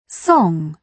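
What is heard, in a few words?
"song", British English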